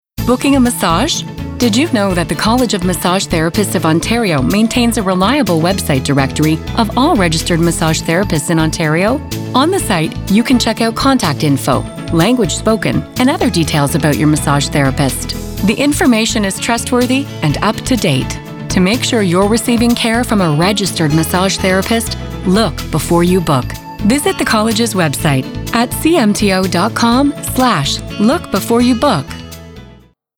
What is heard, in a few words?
radio